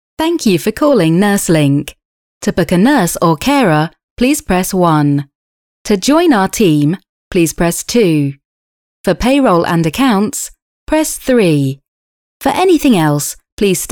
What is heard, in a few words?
ivr